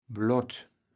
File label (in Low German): "blot", kaevels platt